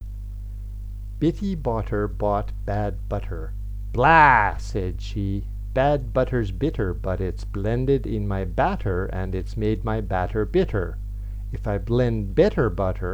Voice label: slow